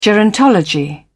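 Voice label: "gerontology", British English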